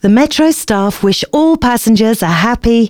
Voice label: announcements female